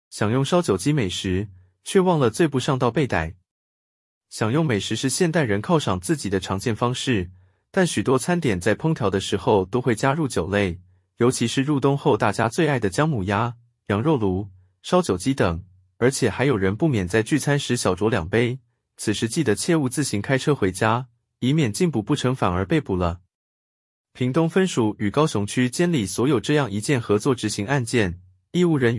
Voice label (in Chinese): ai語音-男; 享用燒酒雞美食-卻忘了醉不上道被逮